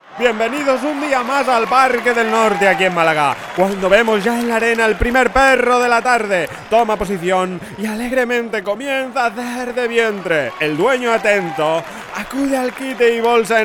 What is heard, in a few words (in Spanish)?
cuña radio publicidad; va por malaga excrementos